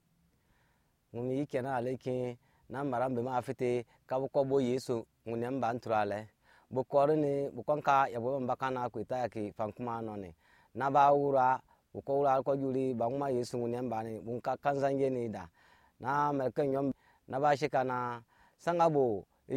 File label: Anufo; Résurrection de Jésus; The Resurrection of Jesus